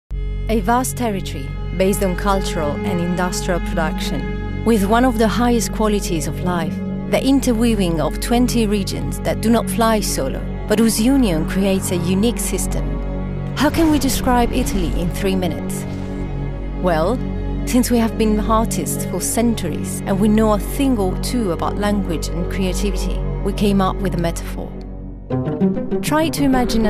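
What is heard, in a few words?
english corporate videos, Female; Expert, multi-lingual actor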